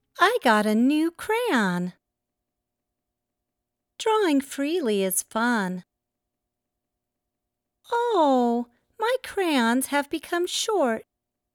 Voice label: Child Narration; New Crayon